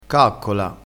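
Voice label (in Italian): "caccola", dialettale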